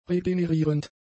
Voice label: "regenerierend", German; adjectives

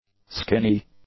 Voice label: "skinny", English; adjectives

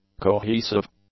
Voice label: "cohesive", English; adjectives

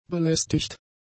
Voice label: "belästigt", German; adjectives

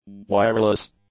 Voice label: "wireless", english; adjectives

